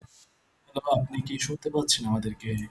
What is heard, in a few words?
voice message